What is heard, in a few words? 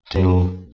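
"tnh2u", Proto-Indo-European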